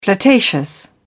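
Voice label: "flirtatious", British English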